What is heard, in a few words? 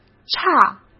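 "差", Mandarin Chinese; chinese-flashcards; hsk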